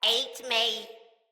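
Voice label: See Player Corrupted Sprouts, en; Dialog; Battle Barks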